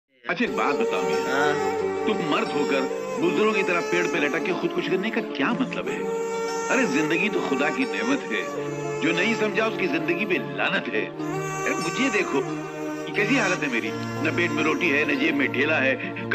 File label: kader khan motivation dialogue attitude dialogue